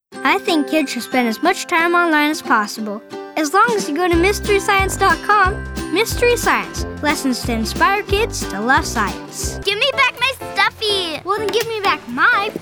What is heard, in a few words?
Commercial